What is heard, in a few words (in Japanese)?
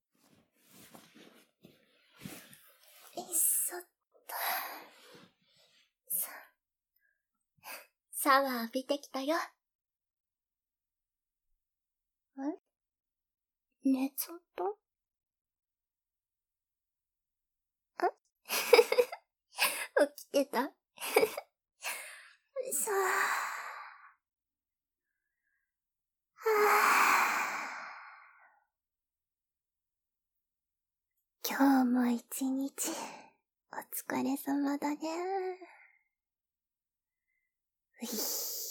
お休みなさい!, ASMR